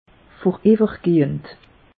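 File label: "voriwwergehend", Alsatian; meteo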